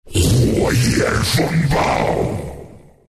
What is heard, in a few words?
ability scorched, zh; Dota 2